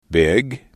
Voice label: "big", english